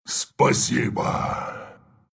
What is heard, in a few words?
thanks, ru; Doom